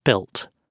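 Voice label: "built", British English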